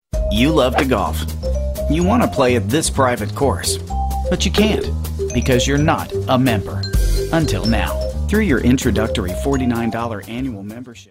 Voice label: guy next door